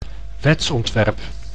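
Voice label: "wetsontwerp", Dutch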